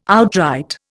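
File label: "outright", Indian English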